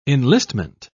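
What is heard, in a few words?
"enlistment", British English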